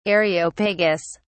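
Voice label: "areopagus", English, British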